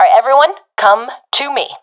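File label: request regroup, professional female; vo; Counter Strike Model Pack; CS:GO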